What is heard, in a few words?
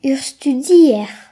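"student", Breton; gcompris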